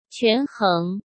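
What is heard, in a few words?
"权衡", Mandarin Chinese; hsk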